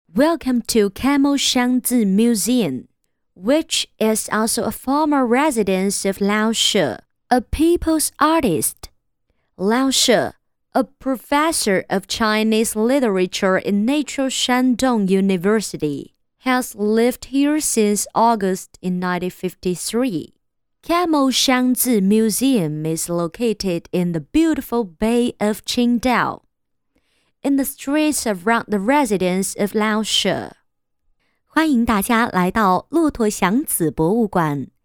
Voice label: 女 英文; 骆驼祥子

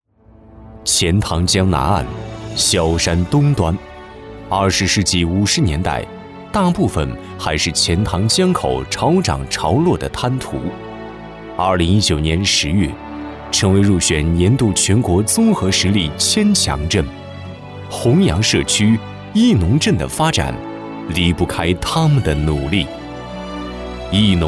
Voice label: 男 专题 党史学习

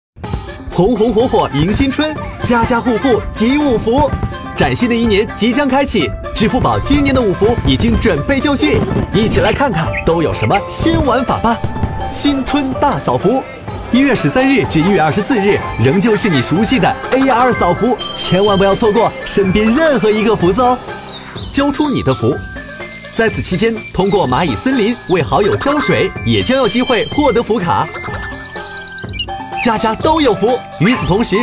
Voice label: 男 广告 年轻活力; 2020支付宝集五福广告

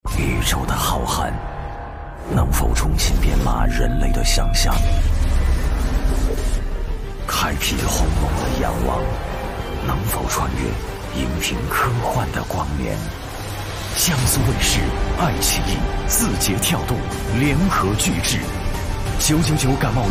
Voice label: 男 综艺片花; 从地球出发

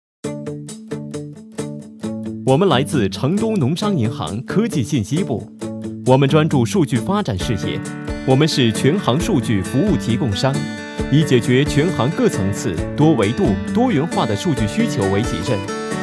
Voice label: 男 专题 银行 试音; 成都农商银行

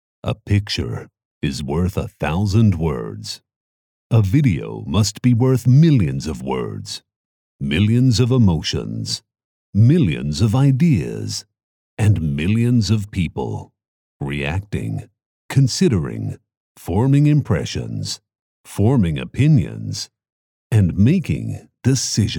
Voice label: 广告公司宣传 深沉大气 干音

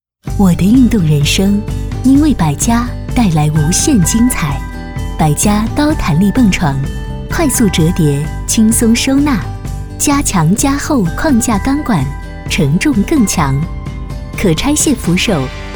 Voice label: 女 专题 蹦床 亲和活力介绍

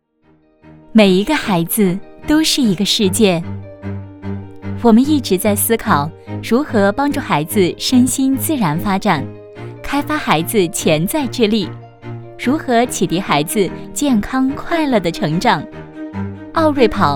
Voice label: 女 专题; 澳睿跑教育

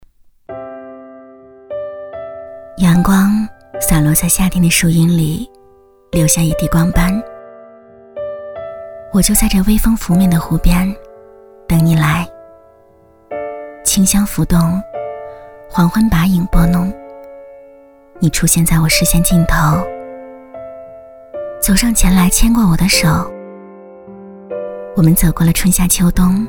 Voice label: 女 旁白; 网易云

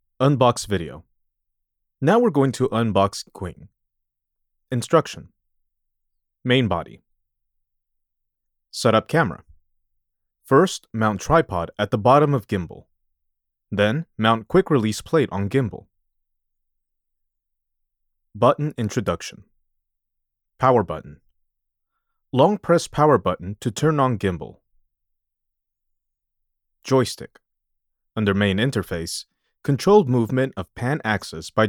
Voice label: 教程

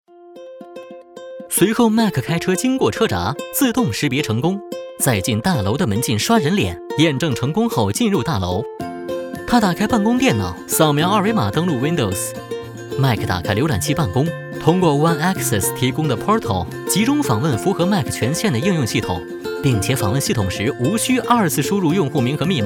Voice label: 男 科技; 华为

